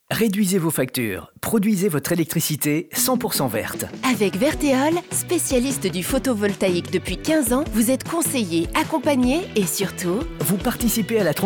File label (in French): pub radio; VERTEOLE; NOSTALGIE FM